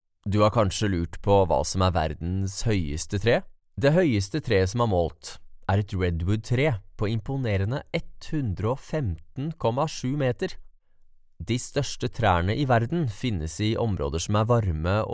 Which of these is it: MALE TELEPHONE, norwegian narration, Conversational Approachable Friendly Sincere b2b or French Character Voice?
norwegian narration